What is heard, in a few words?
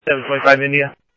Interop Mutual Aid, scanner audio